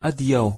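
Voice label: "adiau", Esperanto pronunciation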